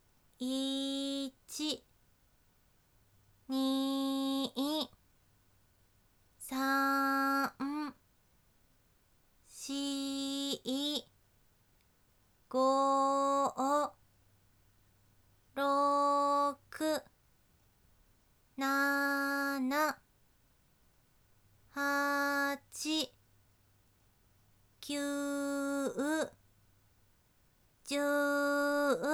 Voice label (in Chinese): 慢10次, 女生为你进行健身计数的语音; 仅计数